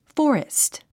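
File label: "forest", English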